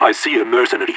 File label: radio enemy spotted; player vo leet